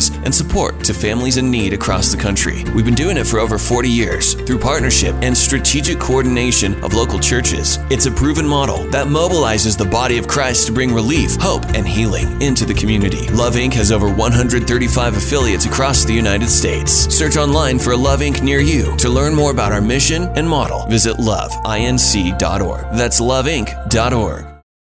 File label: Spot Shoes Male